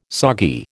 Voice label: "soggy", American